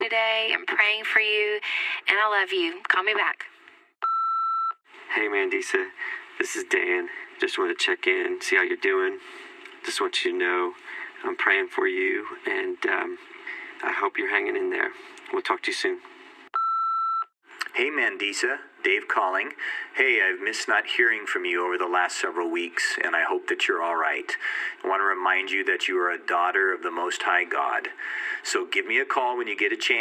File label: Voicemail Intro